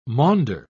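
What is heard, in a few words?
"maunder", British English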